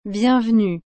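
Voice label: "bienvenue", French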